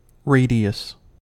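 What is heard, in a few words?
"radius", US English; pronunciations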